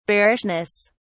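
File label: "bearishness", British English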